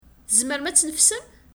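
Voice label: Kabyle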